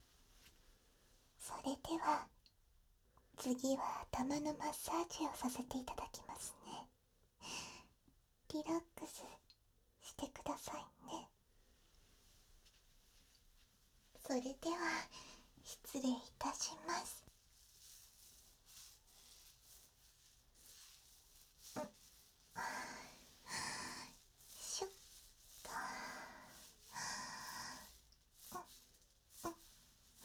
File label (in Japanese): 囁きヘッドスパ